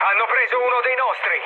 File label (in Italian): aircraft destroyed, mood med, speech it